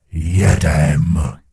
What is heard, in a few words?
Gnome Male Flee; wowpreklad; czwow; GnomeVocalMale